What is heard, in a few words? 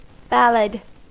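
"ballad", US English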